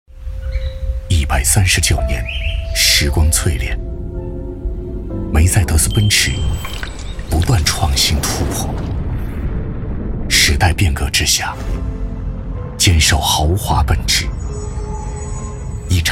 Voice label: 男 广告质感 低沉品质

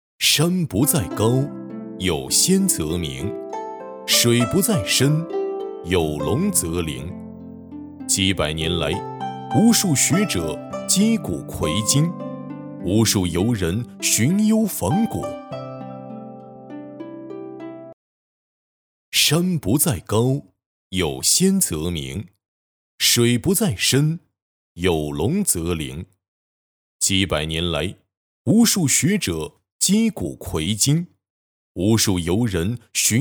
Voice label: 男 古风; 五龙山庙古建筑群

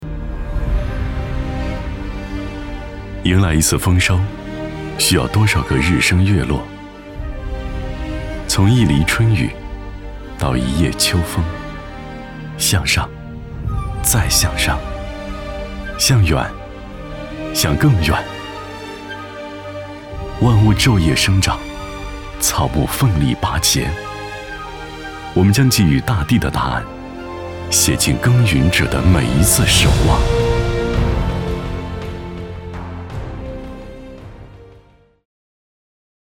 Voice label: 男 广告讲述; 大地上的答案

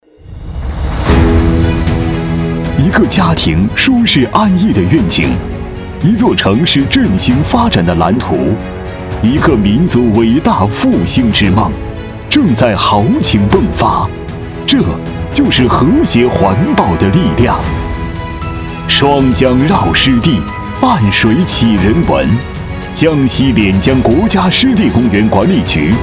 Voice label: 男 大气浑厚; 江西潋江国家湿地公园

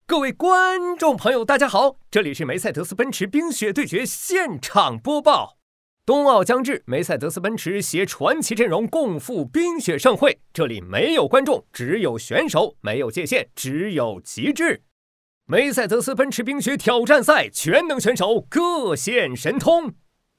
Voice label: 男 夸张类

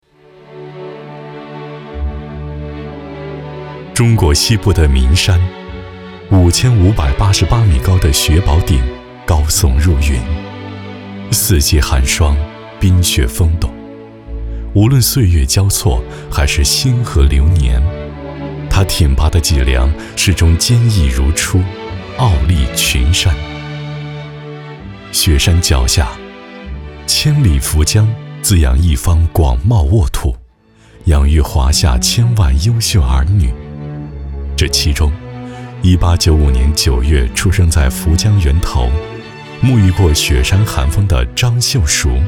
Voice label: 男 纪录红色; 中国西部的岷山

